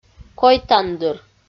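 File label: "койтандыр" Komi, female; audio dict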